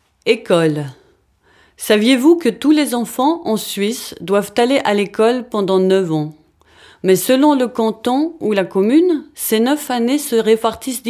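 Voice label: French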